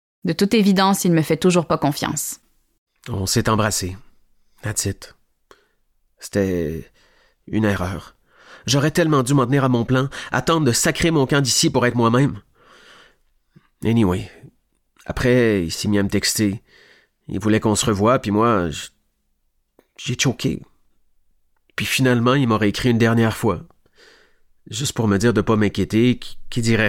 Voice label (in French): narration fr qc vulnerable gene homme medium; parasite